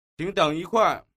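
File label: "qing deng yi hui", Chinese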